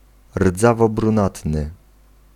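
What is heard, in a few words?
"rdzawobrunatny", Polish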